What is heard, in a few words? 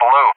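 "below", English; JTAC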